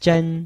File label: "zhen1", Chinese